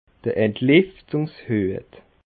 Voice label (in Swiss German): "entliftungshuet", alsace